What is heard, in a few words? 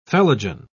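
"phellogen", British English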